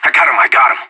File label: enemy down, player vo anarchist; Counter-Strike Global Offensive